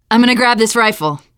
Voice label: take assault rifle, teen girl